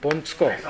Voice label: "bontuko", minamiyamagata